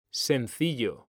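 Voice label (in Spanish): "sencillo", male; mekanta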